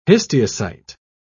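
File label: "histiocyte", British English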